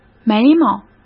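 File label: "眉毛", Mandarin Chinese; chinese flashcards; hsk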